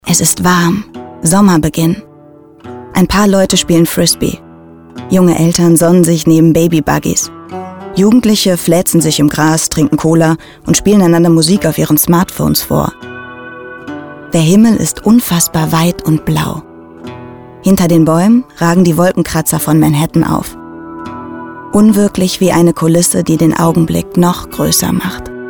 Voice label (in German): Doku, schauspielerinnen; Doku Central Park 2015